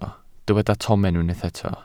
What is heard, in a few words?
"tomen", Welsh